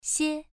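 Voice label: "xie", Mandarin Chinese syllable, first tone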